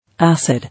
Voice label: "Acid", English